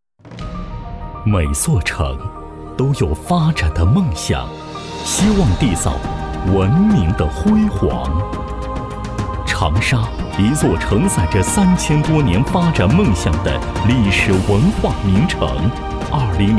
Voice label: 男 专题 地铁; 长沙地铁

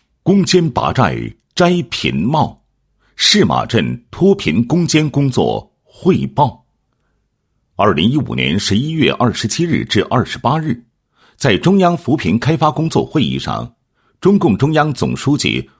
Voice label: 男 工作汇报; 试马镇脱贫攻坚工作汇报

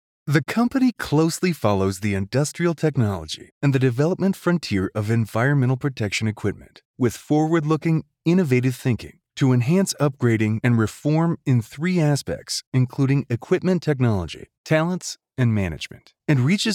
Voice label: 企业宣传英语配音; 广西金属公司

